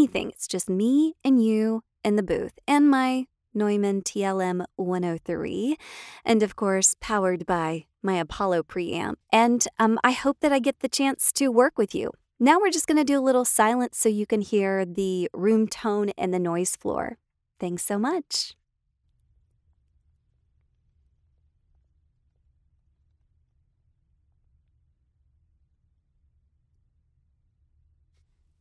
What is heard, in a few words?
Raw Studio